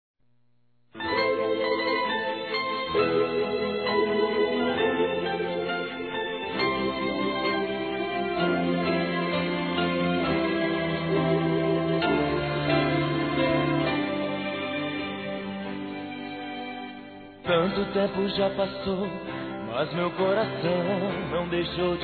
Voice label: Aniversário Sertanejo Namoro Feminino; ANIVERSARIO romantico

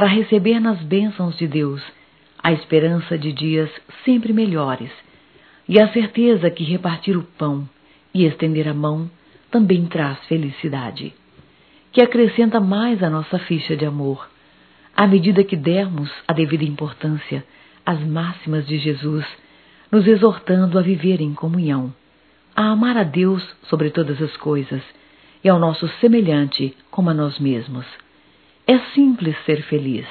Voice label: Natal evangelico - F; Natal e ano novo; fem; Telemensagens 2017

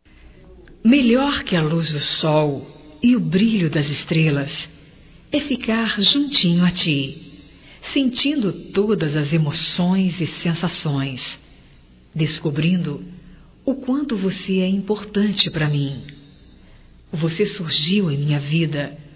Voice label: ROMANTICA GERAL, Românticas fem

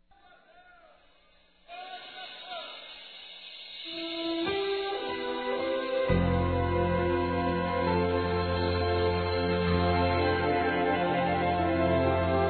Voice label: Aniversário Neutro Evangelico FEMININO; Aniversários

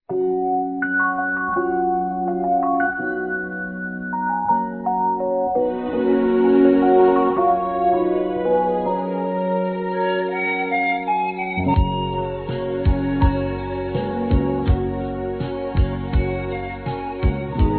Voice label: Para Quem tem Aids M, Telemensagens Otimismo masc

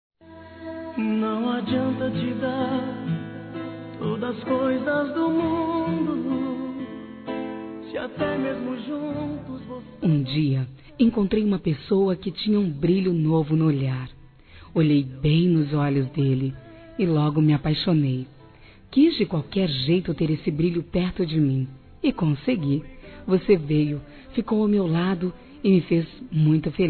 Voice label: ANIV ex namorado f; Aniversários; ANIVERSARIO romantico; fem

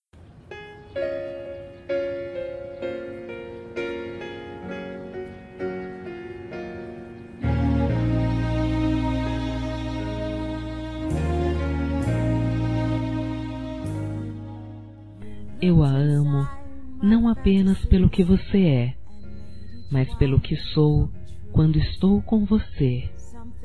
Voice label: Mãe Amiga da Familia F, Telemensagens, Dia das Mães; Telemensagens 2017

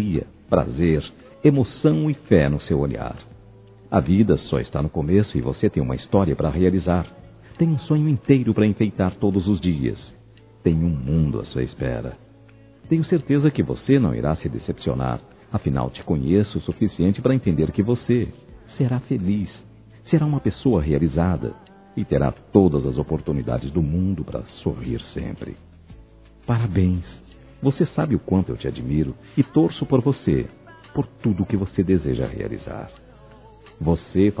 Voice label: Aniversário Alguém Especial Masculino

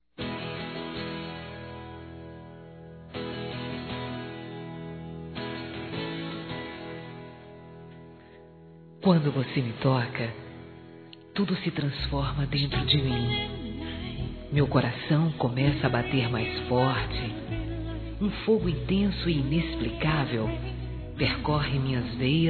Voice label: Picante Feminino; Telemensagens; Sensuais

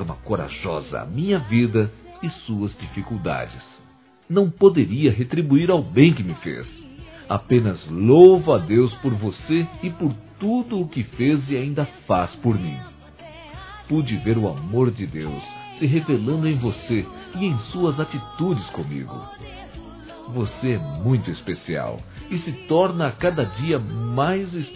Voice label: AGRADEÇO SUA AJUDA, Telemensagens, Gospel, masc

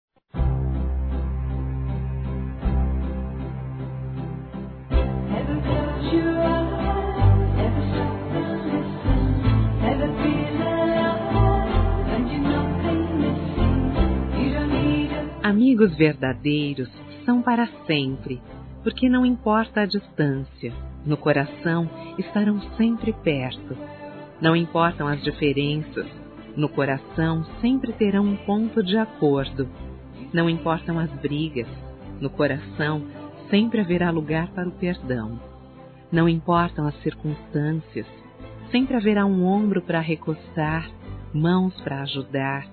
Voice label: DECLARAÇÃO DE AMIZADE, Telemensagens, Fem

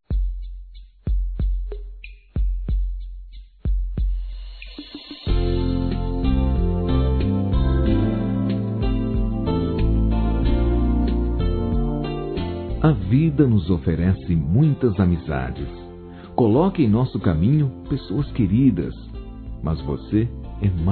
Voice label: MÃE DE CONSIDERAÇÃO, Masc; Dia das Mães; Telemensagens 2017

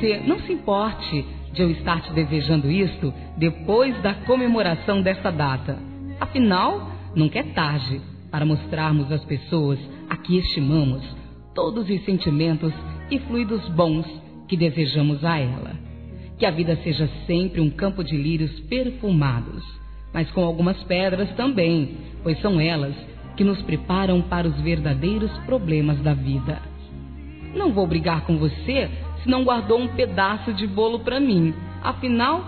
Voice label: Aniversário Atrasado Feminino; Telemensagens 2017; Aniversários; Aniversário atrasado e antecipado

